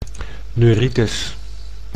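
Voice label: "neuritis", Dutch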